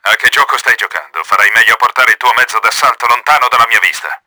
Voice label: ANNOYED, Italian; KRAMER; Battle Engine Aquila; XBOX